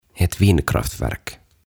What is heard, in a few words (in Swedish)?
"Ett Vindkraftverk", "Tuulivoimala"